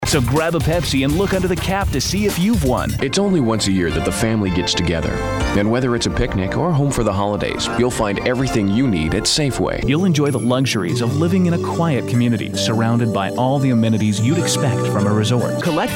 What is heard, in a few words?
commercial compilation